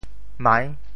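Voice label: "mai6", Teochew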